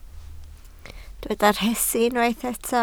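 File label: "rhesi" Welsh, spoken word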